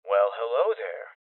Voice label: Voices Loot Spotted Male Young

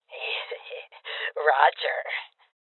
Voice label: Confirmation, Female Old; rebel cops 2019 gamerip

